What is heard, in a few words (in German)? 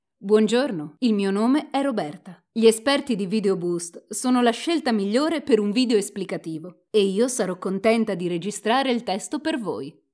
Italienisch; internationale voiceover